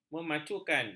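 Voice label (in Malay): "memaculkan", Malay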